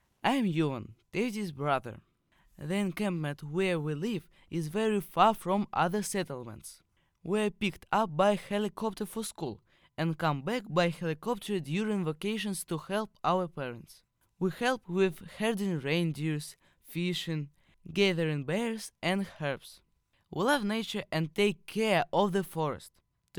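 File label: English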